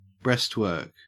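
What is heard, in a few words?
"breastwork", Australian English; pronunciations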